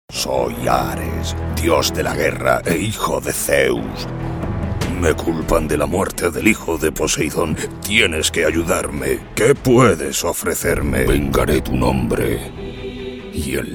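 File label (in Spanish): generico España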